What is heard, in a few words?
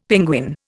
"penguin", Indian; Dictionary